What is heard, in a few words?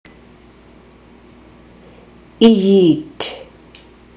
ijet, inuit